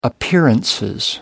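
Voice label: "appearances", British English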